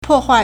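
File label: "po4huai4", Mandarin Chinese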